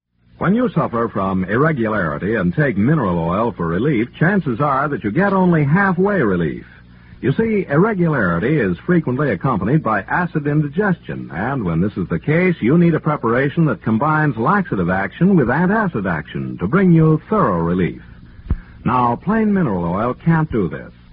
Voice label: Commercials Haley's M-O

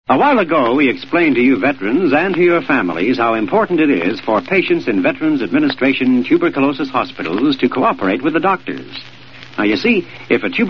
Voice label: Commercials V A Turberculosis

